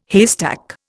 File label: "haystack", Indian